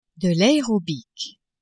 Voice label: "aerobic", french; sports